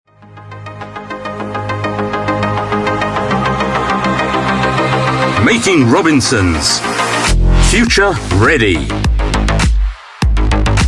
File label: UK English; Robinsons